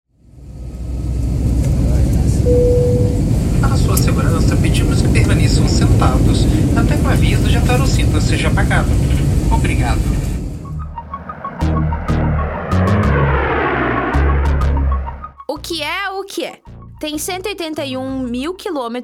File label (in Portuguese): podcast; podcast entre fronteiras